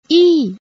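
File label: "ii", Japanese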